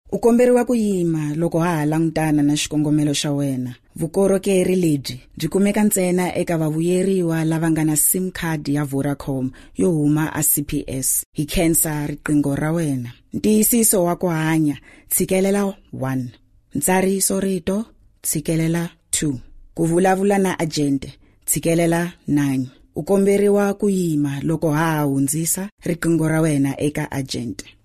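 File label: Tsonga, voice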